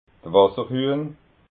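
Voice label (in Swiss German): "wasserhuehn", Alsatian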